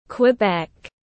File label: Quebec in English